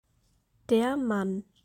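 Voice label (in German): "Der Mann", German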